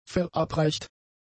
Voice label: "verabreicht", German; adjectives

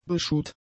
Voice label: "beschuht", German; adjectives

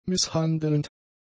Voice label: "misshandelnd", German; adjectives

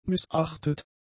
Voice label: "missachtet", German; adjectives

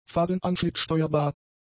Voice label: "phasenanschnittsteuerbar", German; adjectives

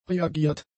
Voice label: "reagiert", German; adjectives

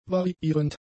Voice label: "variierend", German; adjectives

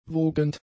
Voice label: "wogend", German; adjectives

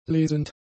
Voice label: "lesend", German; adjectives

